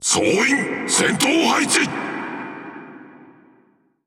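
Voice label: Speech Lets Battle, Japanese; World Of Warships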